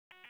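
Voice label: Police District Dispatch, p25; wake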